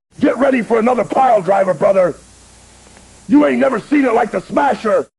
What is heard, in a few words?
smasher happy; speech; Tents